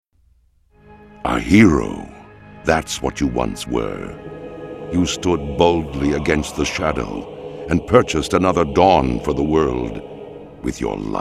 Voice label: Death Knight Narration; CinematicVoices

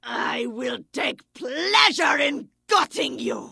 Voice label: Vrykul Female Warrior Pissed

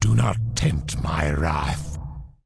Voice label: Undead Male Warrior Pissed; NPC

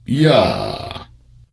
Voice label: NPC Death Knight Troll Male Greeting

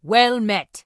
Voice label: Dwarf Female Guard NPC Greeting

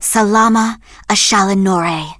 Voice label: Blood Elf Female Standard Farewell

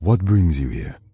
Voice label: Night Elf Male Standard Greeting

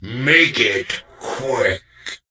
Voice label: NPC Death Knight Male Med Greeting

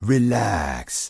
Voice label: Troll Male Shaman NPC Greeting

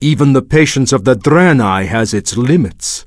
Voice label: Draenei Male Pissed

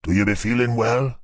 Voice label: Witch Doctor Pissed

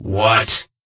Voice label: Death Knight Male Low Greeting

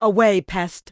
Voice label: Tauren Female Official NPC Pissed

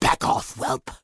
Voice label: Orc Female Standard Pissed

